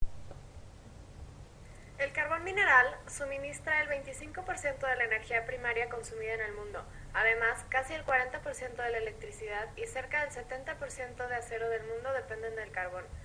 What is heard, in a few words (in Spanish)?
narración en español del mix energético